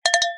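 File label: bear, voices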